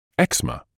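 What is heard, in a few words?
"eczema", British English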